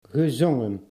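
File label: "gezongen", Dutch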